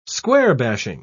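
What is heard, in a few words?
"square-bashing", English, British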